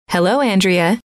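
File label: hello, female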